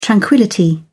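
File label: "tranquillity", British English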